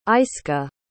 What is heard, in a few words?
"ayska", pronounce